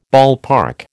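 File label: "ballpark", American English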